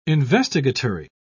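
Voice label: "investigatory", British English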